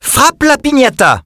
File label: Pinata Expressions, French